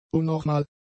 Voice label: "unnormal", German; adjectives